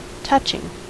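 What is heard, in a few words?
"touching", American English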